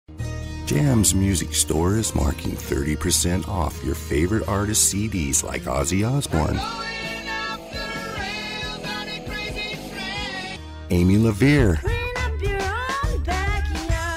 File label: Commercial